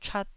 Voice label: "chat1", taiwanese

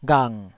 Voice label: "ghang3", Taiwanese

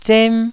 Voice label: "zem1", taiwanese syllables

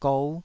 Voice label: "gou5", Taiwanese syllable

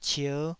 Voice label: "cier5", taiwanese

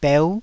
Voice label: "beu9", taiwanese

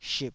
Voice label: "ship3", taiwanese Syllables

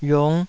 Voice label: "yiung5", taiwanese Syllables

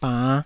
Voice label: "bann5", taiwanese

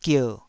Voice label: "gier3", Taiwanese syllable

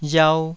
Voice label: "riau2", taiwanese

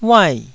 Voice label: "uai4", Taiwanese

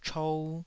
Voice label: "chou2", taiwanese

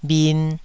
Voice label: "bhin2", taiwanese

